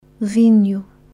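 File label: "Vinho", Portuguese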